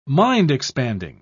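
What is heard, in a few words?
"mind-expanding", British English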